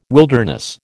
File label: "wilderness", American